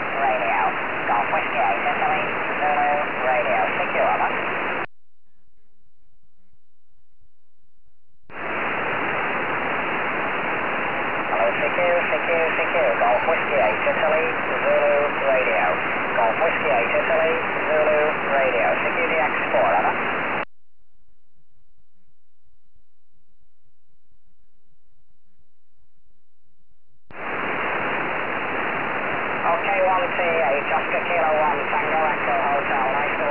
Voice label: ssb 4m

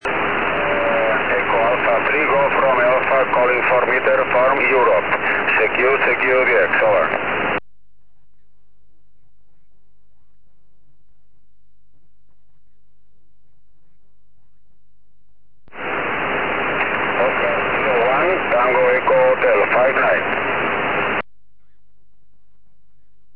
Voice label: 4m ssb

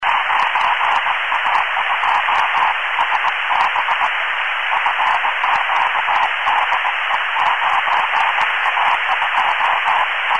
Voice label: 2 qso ssb

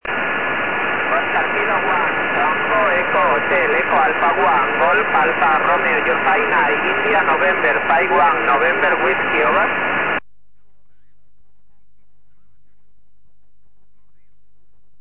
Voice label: 4m ssb

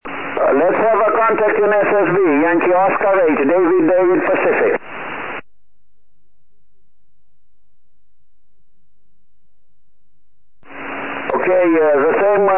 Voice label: 4m ssb

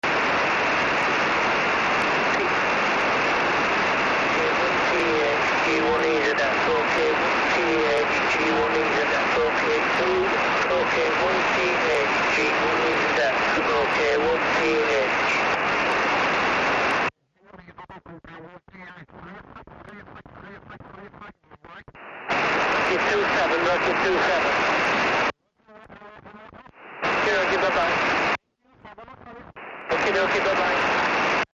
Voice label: ssb 2m; ms

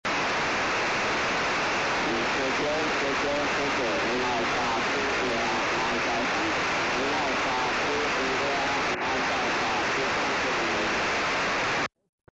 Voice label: cq 2m, tropo

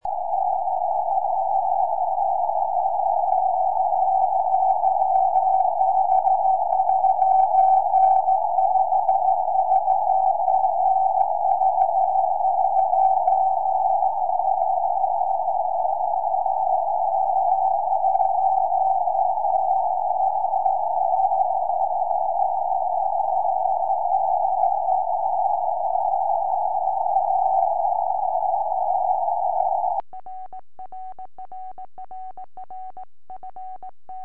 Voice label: 23cm, tropo

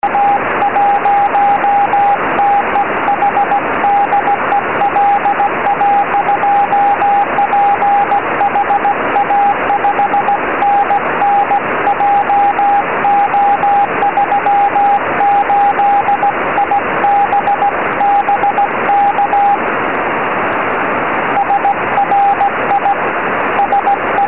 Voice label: 70cm, tropo

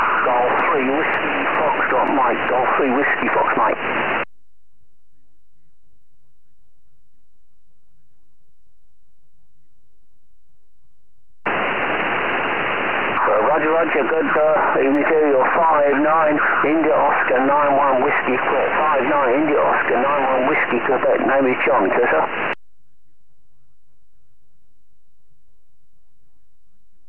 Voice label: qso 4m

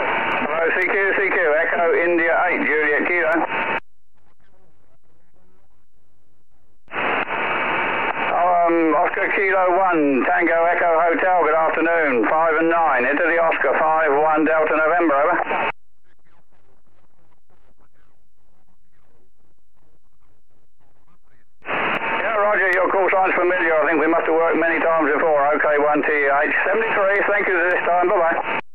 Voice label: qso

